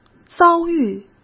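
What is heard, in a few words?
"遭遇", Mandarin Chinese; chinese-flashcards; hsk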